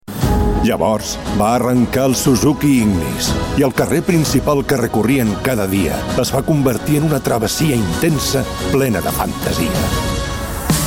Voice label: Catalan voz masculina grave

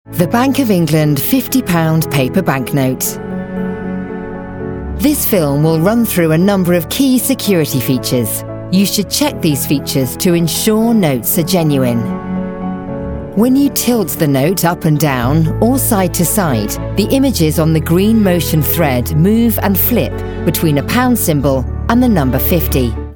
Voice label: Ingles UK voz femenina media

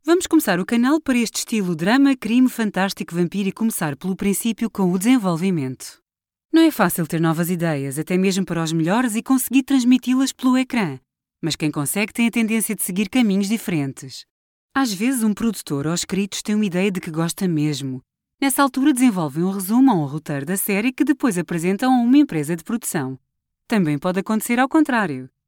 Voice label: PT voz femenina media

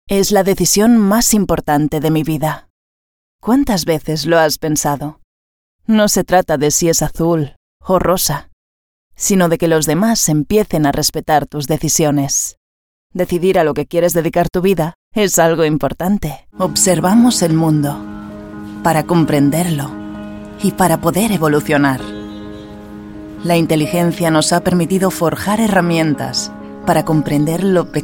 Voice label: voz femenina media

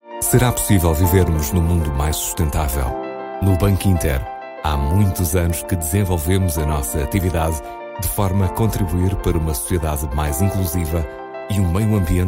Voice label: PT Voz masculina grave

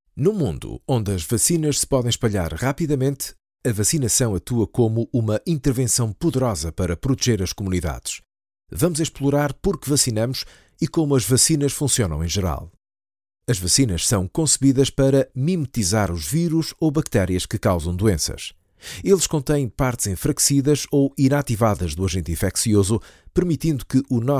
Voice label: PT Voz masculina media

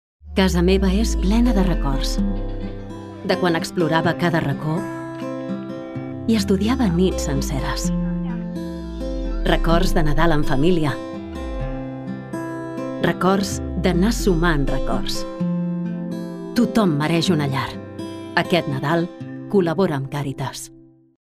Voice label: Catalan voz femenina media

